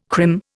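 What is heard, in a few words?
"crim", Indian English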